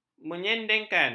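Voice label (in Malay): "menyendengkan", Malay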